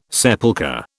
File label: "sepulchre", British